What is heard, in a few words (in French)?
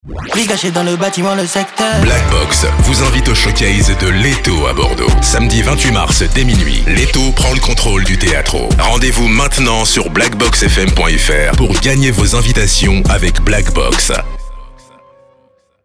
Habillage TV Radio en concert FR